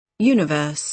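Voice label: "universe", British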